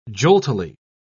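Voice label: "joltily", British English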